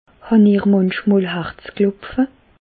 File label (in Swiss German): harzklopfe, Bas Rhin; medecine